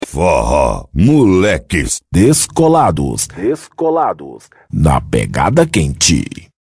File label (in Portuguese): vinheta